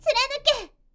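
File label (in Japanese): "turanuke", Japanese; magi syozyo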